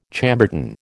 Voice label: "Chambertin", American